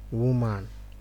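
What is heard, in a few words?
"woman", Nigerian Pidgin, male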